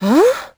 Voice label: confused; Crypt of the NecroDancer